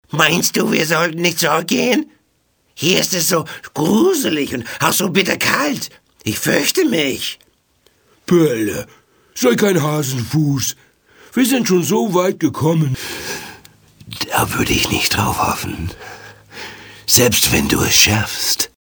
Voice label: German Cartoon Stimmen